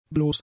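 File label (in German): "bloß", German; adjectives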